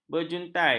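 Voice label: "berjuntai", Malay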